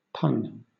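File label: "pun", British English; pronunciations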